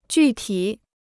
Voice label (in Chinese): "具体", Chinese